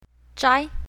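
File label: "zhai1", pinyin, south, female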